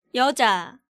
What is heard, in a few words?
"Yeoja", Korean